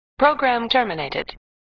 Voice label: Voice Computer "Program Terminated"; flipper; sttng